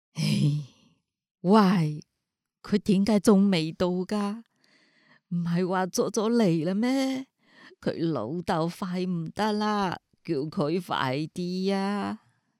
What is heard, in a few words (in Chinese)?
干音